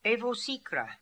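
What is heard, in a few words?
"Evosikra", pronunciations; Fourth Way; Beelzebub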